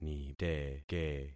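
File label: "niteke", concatenated syllables; Affixing experiment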